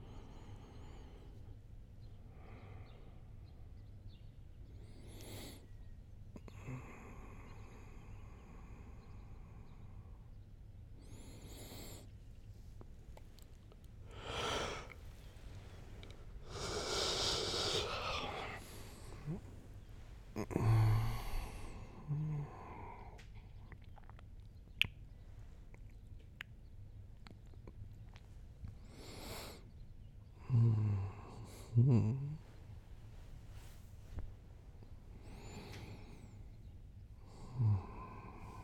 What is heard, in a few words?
sleepy saturday wake up sfx